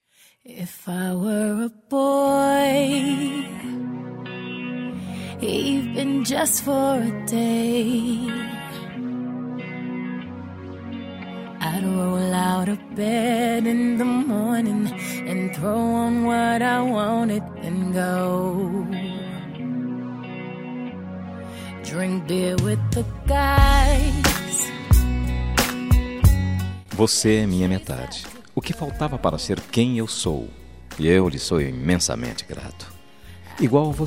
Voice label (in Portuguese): ANIV ESPECIAL MASC, telemensagem